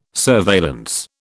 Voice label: "surveillance", British

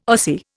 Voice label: "Ossie", Indian

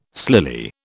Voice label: "slily", British

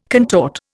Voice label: "contort", Indian

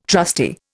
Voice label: "trusty", Indian

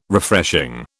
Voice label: "Refreshing", British

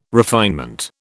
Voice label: "refinement", British

